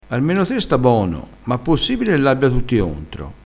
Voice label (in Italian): "armeno", crespinese